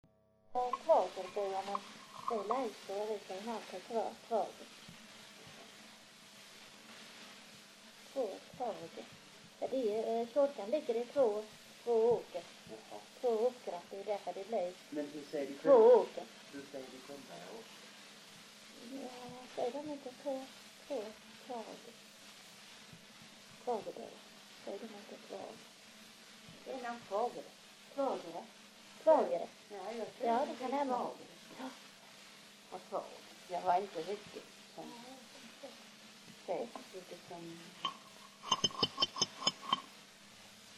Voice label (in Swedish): dialekter; Folkminnen; Svenska sagor